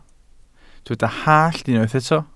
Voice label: "hallt", Welsh